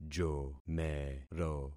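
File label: "jomero", concatenated syllables; Affixing experiment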